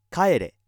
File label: male